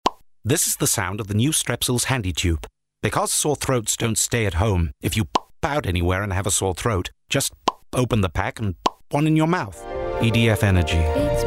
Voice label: Commercial